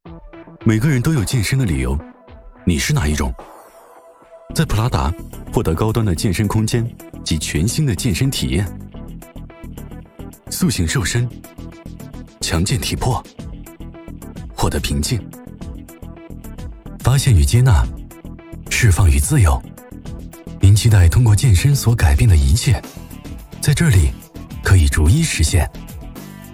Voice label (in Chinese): 男 广告 时尚; 普拉达